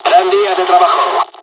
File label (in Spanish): dialogs spanish; War Thunder